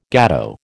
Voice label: "gateau", American